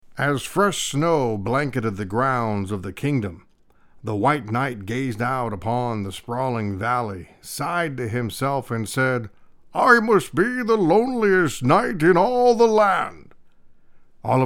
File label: fairy tale narration